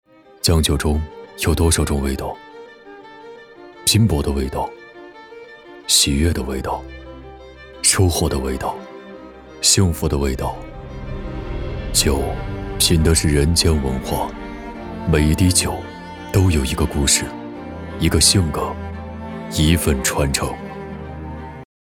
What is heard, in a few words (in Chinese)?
男 酒广告 成熟向上品质; 领匠酱酒